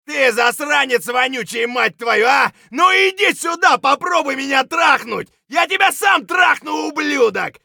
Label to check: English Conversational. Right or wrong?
Wrong